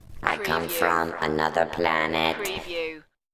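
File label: Robot Another Planet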